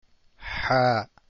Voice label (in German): ح (Ha), audio aussprache arabisch, Der koran-arabische Buchstabe ح (Ha); im Abjad-28 Schriftsystem